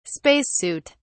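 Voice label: "space suit", English, British English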